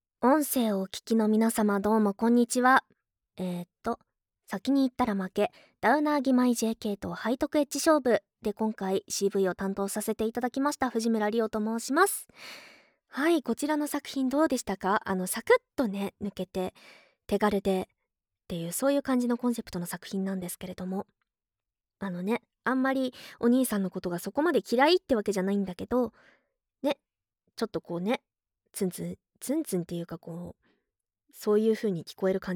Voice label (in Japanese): フリートーク, ASMR